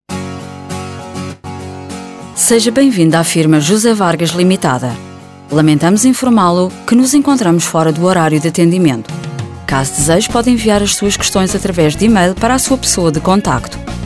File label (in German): warteschleife, portugiesisch, sprecher